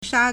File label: "sha1", Chinese